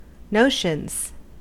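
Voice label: "notions", US English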